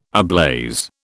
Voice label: "ablaze", British

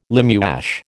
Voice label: "limewash", American

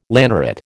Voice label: "lanneret", American

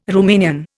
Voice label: "Rumanian", Indian

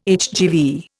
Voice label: "HGV", Indian

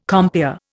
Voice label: "compeer", Indian

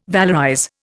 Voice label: "valorize", Indian

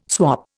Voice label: "swap", Indian

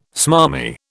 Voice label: "smarmy", British

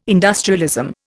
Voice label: "industrialism", Indian

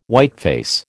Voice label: "whiteface", American

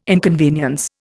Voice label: "inconvenience", Indian

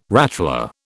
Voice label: "rattler", British

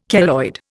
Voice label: "keloid", Indian

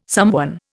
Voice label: "somewhen", Indian

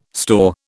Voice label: "store", British

